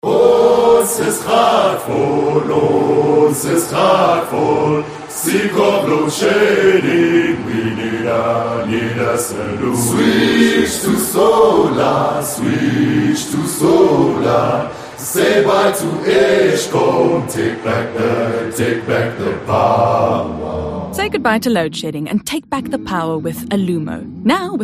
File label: English Ad; Alumo